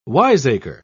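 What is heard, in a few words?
"wiseacre", English, British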